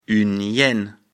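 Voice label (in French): "hyenne", French; afrikalan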